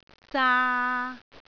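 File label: "zaa3", Cantonese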